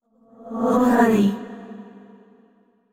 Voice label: neutral short; yamiko